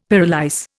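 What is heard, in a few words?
"pyrolyse", Indian English; Dictionary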